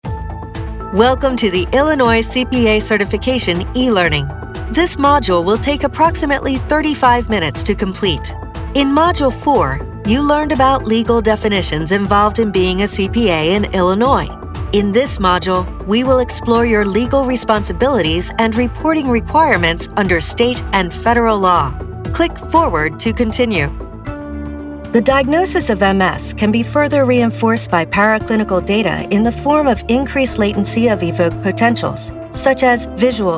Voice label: 20s30s Female eLearning